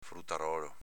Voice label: "frutarolo", dialetto veneto; s.m. fruttivendolo